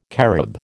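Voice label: "carob", American English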